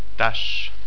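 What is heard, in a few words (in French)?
"tache", French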